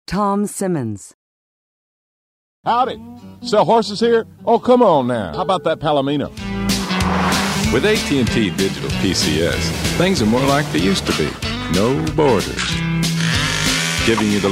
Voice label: commercial; Innovative LA